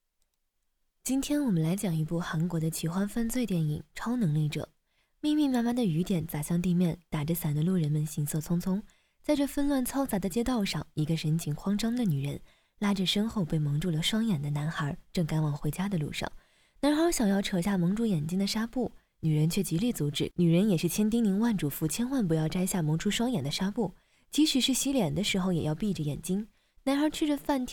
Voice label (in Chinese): 电影解说样音